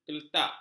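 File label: "keletak", Malay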